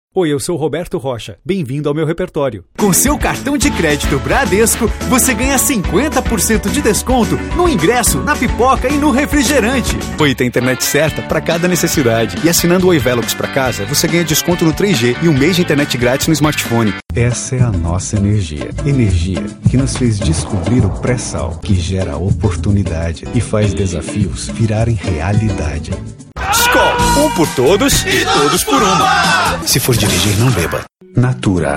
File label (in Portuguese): locutor profissional; Clube da Voz